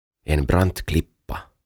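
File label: "Brant Klippa", Swedish; Rantatörmä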